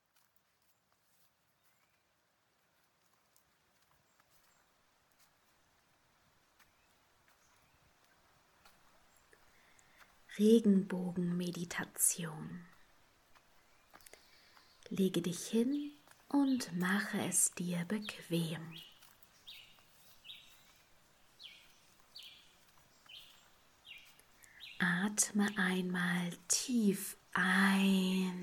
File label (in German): Meditation mit Geraeuschen